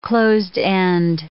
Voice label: "closed-end", English